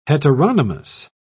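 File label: "heteronomous", English, British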